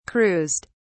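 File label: "cruised", British English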